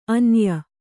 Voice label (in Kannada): "ಆನಯ", Kannada